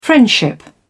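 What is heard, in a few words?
"friendship", British English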